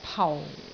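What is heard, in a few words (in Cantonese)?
"pau4", Cantonese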